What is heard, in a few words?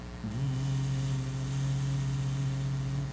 VV, phones